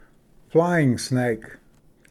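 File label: "flying snake", English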